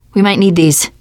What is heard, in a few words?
take first aid, teengirl, voice; player; survivor